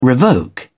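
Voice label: "revoke", British English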